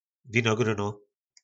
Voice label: "Vino gróno", nářečí Po našimu; Hroznové víno

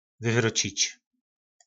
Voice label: "Vyvróčič", nářečí Po našimu; Vyvrátit

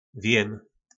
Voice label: "Vjym", nářečí Po našimu; Vím

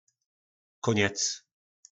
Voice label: "Kóňec", nářečí Po našimu

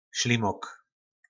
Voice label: "Šlimok", nářečí Po našimu; Hlemýžď; Šlimoki nóm žeróm kapuste.

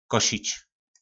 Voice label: "Košič", nářečí Po našimu; Kosit

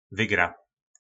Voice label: "Vygra", nářečí Po našimu; Výhra

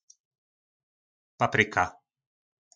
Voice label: "Papryka", nářečí Po našimu; Paprika

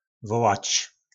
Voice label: "Vołač", nářečí Po našimu; Volat

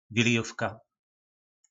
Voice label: "Vilijovka", nářečí Po našimu; Vánoční večírek

